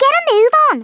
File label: "come on then", Scouser